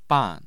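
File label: "ban", Mandarin Chinese, tone 4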